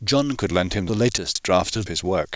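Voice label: English Male, Synth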